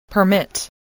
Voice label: "permit", voice, English